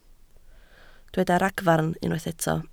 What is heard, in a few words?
"ragfarn", Welsh